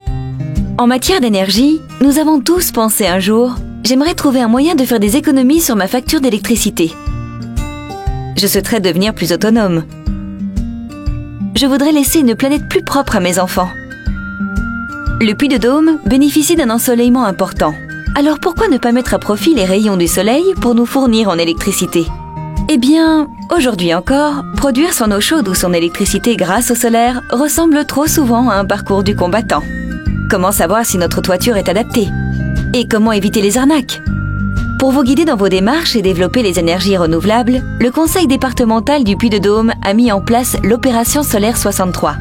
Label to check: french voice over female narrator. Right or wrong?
Right